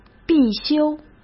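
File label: "必修", Mandarin Chinese; hsk; chinese-flashcards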